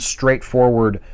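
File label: "straightforward" English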